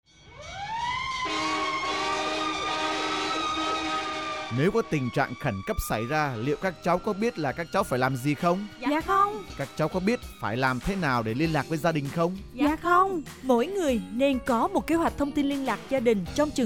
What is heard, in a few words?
Radio Vietnamese